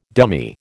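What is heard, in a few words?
"dummy", American English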